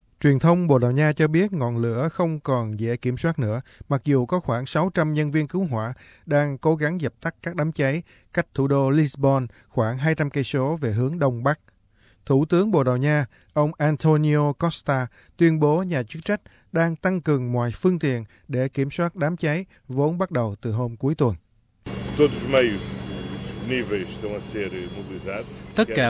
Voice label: vietnamese; SBS Vietnamese; Tử vong vì cháy rừng ở Bồ Đào Nha đang tăng

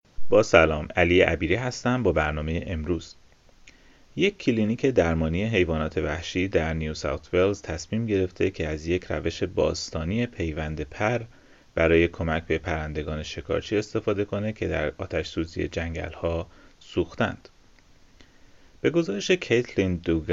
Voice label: persian; SBS Persian; استفاده از یک روش قدیمی برای کمک به پرندگان آسیب دیده در آتش

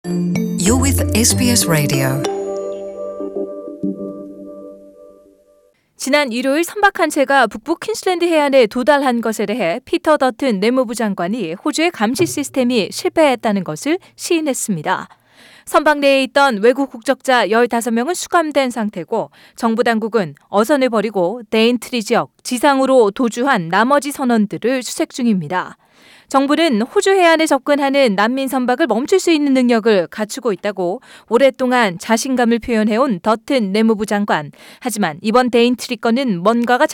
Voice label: korean; SBS Korean; Dutton admits stopping boats failed

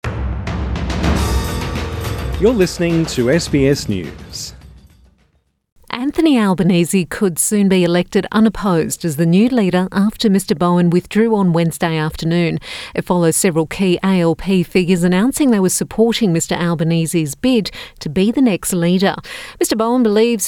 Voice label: english; Bowen withdrawal leaves path clear for Albanese; SBS News

